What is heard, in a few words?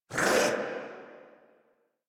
Threatened Corrupted Grineer Male